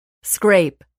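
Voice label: "scrape", English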